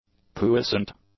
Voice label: "puissant", english; adjectives

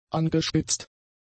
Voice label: "angespitzt", German; adjectives

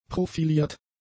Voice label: "profiliert", German; adjectives

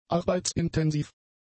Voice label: "arbeitsintensiv", German; adjectives

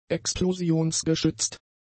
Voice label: "explosionsgeschützt", German; adjectives

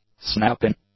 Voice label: "snap-in", english; adjectives

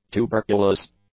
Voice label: "tuberculous", English; adjectives

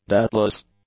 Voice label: "dateless", english; adjectives

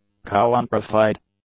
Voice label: "countrified", English; adjectives

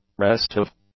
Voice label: "restive", English; adjectives

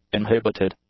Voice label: "inhibited", English; adjectives

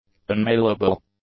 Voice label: "unmailable", English; adjectives

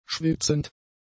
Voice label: "schwitzend", German; adjectives

